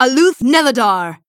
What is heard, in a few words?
Female NightElf Attack